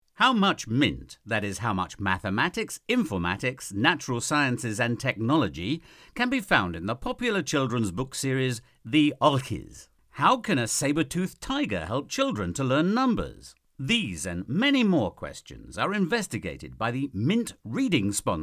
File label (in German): Eng Entspannt; Mint Sponsors